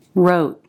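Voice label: "wrote", English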